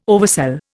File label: "oversell", Indian